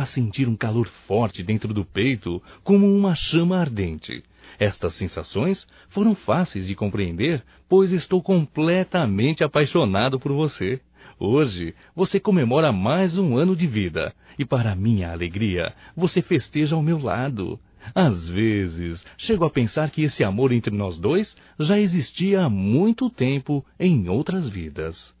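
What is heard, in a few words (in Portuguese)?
ANIV Romântico Masculino; Aniversarios; Telemensagens 2017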